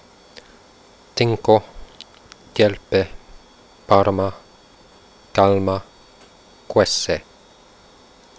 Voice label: quenya voiceless